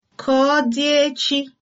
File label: goodbye, igbo